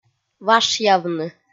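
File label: "вашъявны", Komi, female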